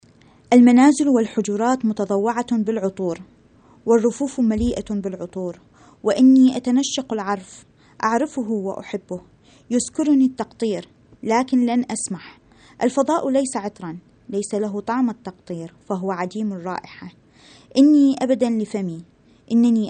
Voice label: arabic